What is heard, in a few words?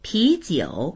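"pi2jiu3", Chinese; píjiǔ; 啤酒; ビール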